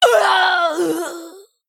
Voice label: Female Human Death